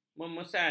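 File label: "memesan", Malay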